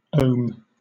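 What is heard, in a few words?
"ohm", British English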